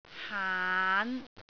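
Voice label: "haan5", Cantonese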